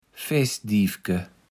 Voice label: "Feßdiefke", Platt

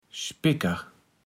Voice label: "Spicker", platt

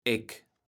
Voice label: "Äk", kaevels platt

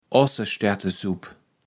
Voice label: "Ossestärtesupp", Platt

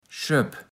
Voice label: "Schöpp", platt

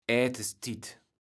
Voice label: "Ätestit", kaevels platt

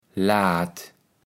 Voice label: "laat", kaevels platt

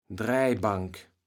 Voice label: "Dräijbank", kaevels platt

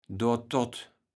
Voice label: "dortott", kaevels platt

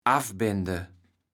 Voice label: "affbende", kaevels platt

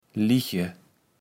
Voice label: "Liche", kaevels platt

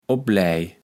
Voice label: "opläj", kaevels platt

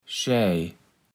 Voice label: "Schaej", kaevels platt

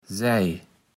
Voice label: "Sij", platt

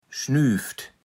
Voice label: "schnüft", Platt

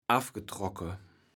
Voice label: "affgetrocke", kaevels platt

